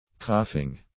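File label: "coughing", English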